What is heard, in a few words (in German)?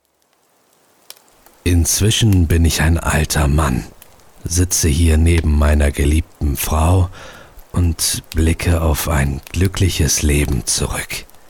Tiefer Älter